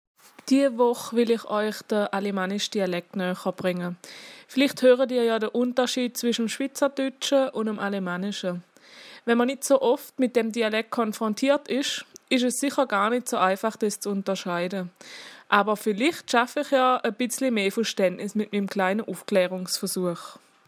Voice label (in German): alemannisch